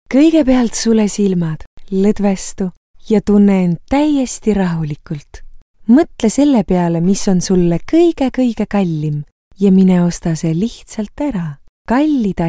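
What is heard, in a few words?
estonian, female, informative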